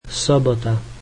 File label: slovak Saturday